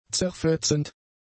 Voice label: "zerfetzend", German; adjectives

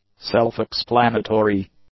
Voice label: "self-explanatory", English; adjectives

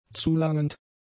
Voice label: "zulangend", German; adjectives

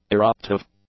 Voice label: "irruptive", english; adjectives

